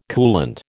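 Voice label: "coolant", American English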